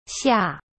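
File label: "xia", chinese; BCT Standard Course 1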